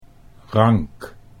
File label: "rank", Dutch